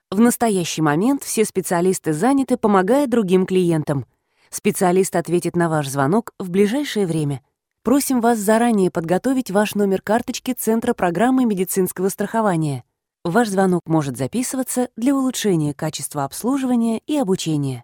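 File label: Russian narration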